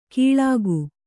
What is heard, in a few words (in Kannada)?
"ಕೀಳಾಗು", Kannada